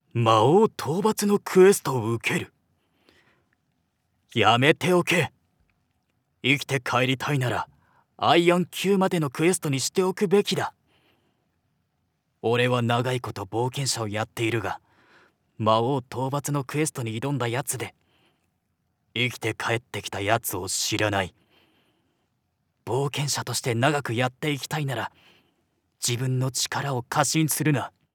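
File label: voice sample, adventurer guild leader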